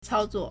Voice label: "cao1zuo4", Chinese